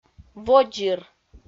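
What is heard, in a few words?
"водзир", Komi, female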